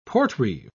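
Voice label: "portreeve", British English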